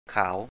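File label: "khǎ:w" Thai, 'to be white'; ขาว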